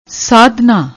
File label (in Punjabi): "sadhna", Punjabi; ਸਾਧਨਾ